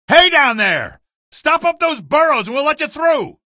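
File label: citizen stop burrows, male; vo; npc; source engine; episodic; episode 1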